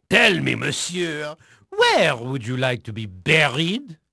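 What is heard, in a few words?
French male, voice; Pirates Of The Caribbean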